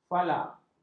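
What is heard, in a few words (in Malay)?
"falak", Malay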